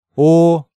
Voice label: "O", Hangeul sounds; DigitalSoju